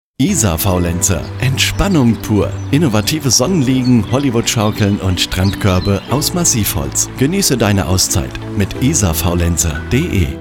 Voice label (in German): radiospot; antenne bayern